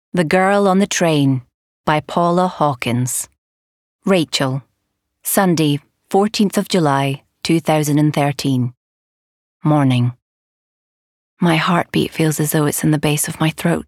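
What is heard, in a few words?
AUDIOBOOK, Female; GIRL ON THE TRAIN AUDIOBOOK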